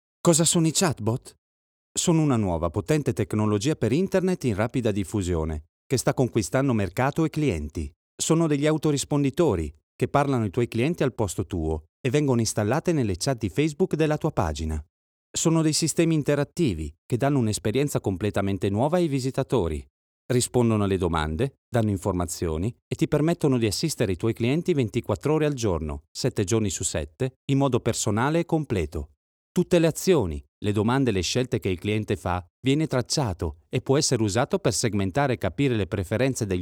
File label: italian tv shows